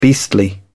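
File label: "beastly", British English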